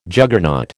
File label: "Juggernaut", American